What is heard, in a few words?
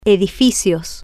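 "e di fi cios", female, Spanish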